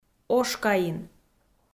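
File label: "ошкаин", Komi-Zyrian, female; audio dict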